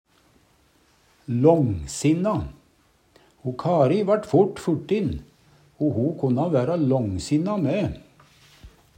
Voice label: langsinna, Numedalsmål; Adjektiv

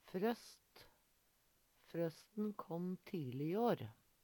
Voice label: "frøst", Numedalsmål; Frøsten kåm tile i år.

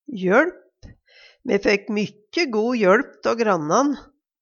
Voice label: jøLp, Numedalsmål; hjelp; Substantiv hokjønn; Eintal ubunde

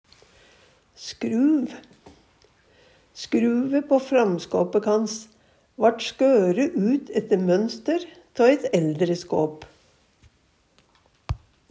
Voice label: "skruv", Numedalsmål

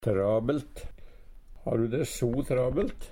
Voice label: "trabelt", Numedalsmål; travelt; Adverb

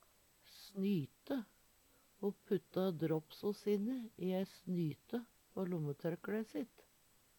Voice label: snyte, Numedalsmål; Eksempel på bruk Ho putta dråpso sine ett snyte på lommetørklæe sitt.

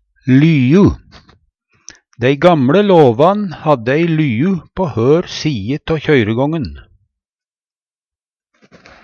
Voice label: lyu, Numedalsmål; Substantiv hokjønn

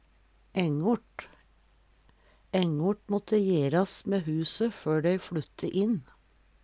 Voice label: "ængort", Numedalsmål; Determinativ (mengdeord)

